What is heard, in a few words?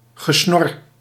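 "gesnor", Dutch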